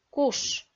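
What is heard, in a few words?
"куш" Komi, female; kpv audio dict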